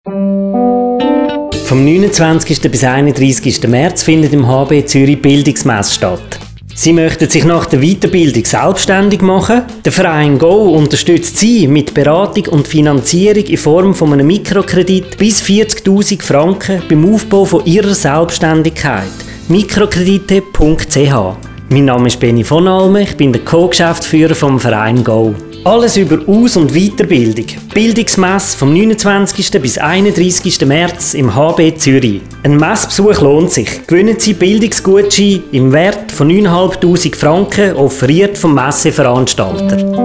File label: radio spot; bildungsmesse